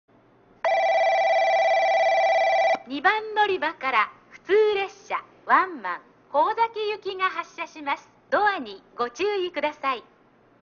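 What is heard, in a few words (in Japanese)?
2番のりば発車放送, 中津駅; 普通ワンマン 幸崎行き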